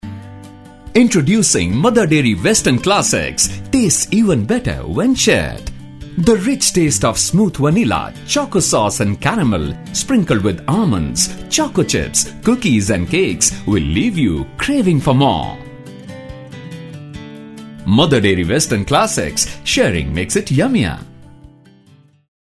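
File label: full spot music voice over, male voice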